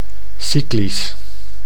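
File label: "cyclisch", Dutch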